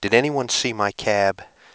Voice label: male clean 16k